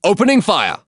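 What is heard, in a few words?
Voices Units Allied Sniper attack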